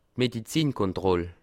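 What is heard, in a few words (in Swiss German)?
"medizinisch", Bas Rhin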